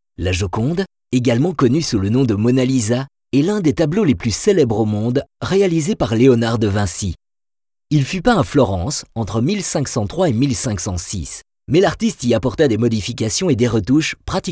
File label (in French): AUDIOGUIDE; JOCONDE